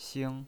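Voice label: "xing", pinyin voice, first tone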